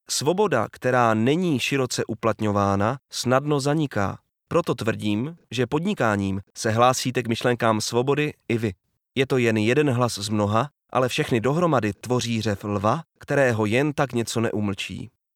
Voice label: czech narration